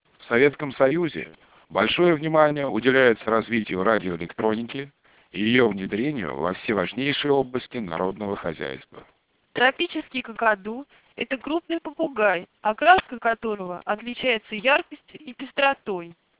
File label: speech melp 4400 bps